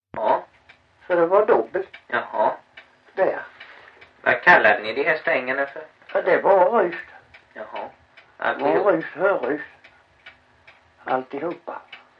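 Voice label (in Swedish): Backaryd Blekinge, Svenska sagor dialekter; Folkminnen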